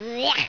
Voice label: DISGUSTE disgusted; Zak